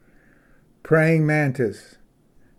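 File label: "praying mantis", English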